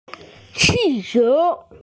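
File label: "qi4qiu2", Mandarin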